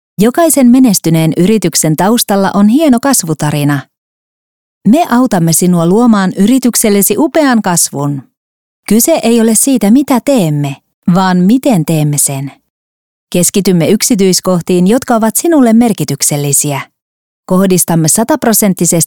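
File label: Finnish, female, corporate; voice over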